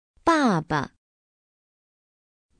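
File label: "爸爸", Chinese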